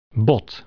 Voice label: "bone", dutch